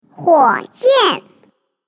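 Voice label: MAN rocket, Chinese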